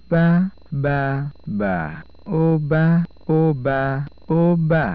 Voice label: yoruba